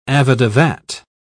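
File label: "avadavat", English, British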